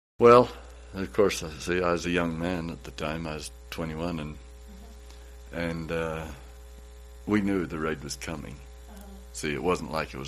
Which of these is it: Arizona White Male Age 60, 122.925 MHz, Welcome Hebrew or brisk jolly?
Arizona White Male Age 60